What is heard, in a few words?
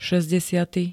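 "šesťdesiaty", Slovak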